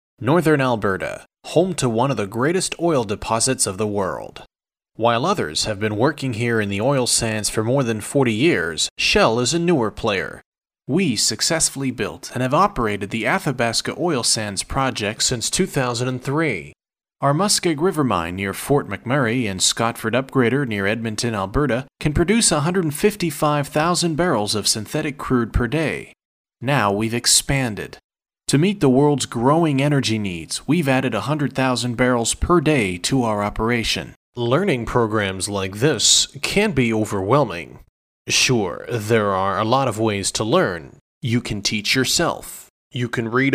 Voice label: Corporate, American Corporate